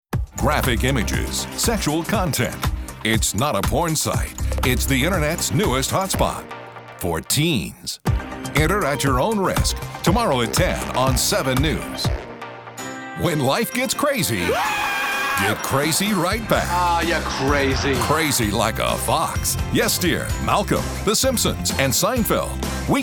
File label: PROMOS, auto dealer